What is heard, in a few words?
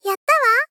Gentle Victory "Yatta wa"; Rei Yumesaki